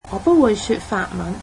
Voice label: I dont speak French, cantonese

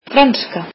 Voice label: "French", Icelandic

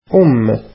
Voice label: arabic mother

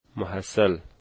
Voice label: student, dari

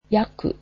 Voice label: "about", japanese

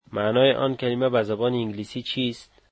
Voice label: dari What does that word mean in English

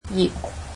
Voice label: "page", Cantonese

